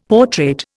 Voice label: "portrait", Indian; Dictionary

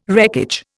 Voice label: "wreckage", Indian

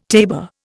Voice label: "tabor", Indian

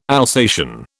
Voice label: "Alsatian", British

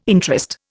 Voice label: "interest", Indian

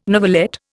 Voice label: "novelette", Indian

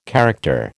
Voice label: "character", American

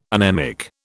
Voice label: "anemic", British

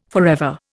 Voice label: "forever", Indian

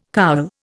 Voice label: "cowl", Indian

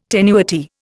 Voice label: "tenuity", Indian

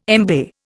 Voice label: "embay", Indian

